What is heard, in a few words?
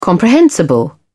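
"comprehensible", English, British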